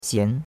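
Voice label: "xian2", Mandarin Chinese; Cours-de-Chinois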